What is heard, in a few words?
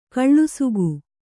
"ಕೆಲಸಿಗ", Kannada